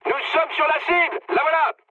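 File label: near waypoint, mood high, French; War Thunder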